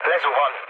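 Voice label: Hungarian aircraft destroyed mood low, speech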